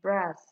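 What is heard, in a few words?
"bras", Nigerian Pidgin, female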